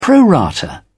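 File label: "pro rata", British English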